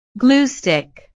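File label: "glue-stick", English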